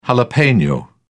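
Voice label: "jalapeno", British English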